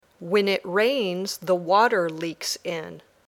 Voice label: Dictation